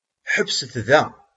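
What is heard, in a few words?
"Ḥebsemt da.", Kabyle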